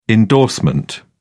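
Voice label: "indorsement", British English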